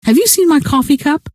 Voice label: coffee cup, scientist female